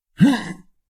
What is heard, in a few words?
guard male; SyrinScape; Waterdeep